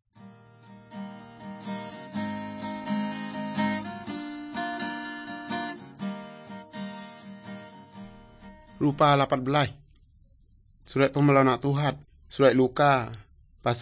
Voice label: good news, urak lawoi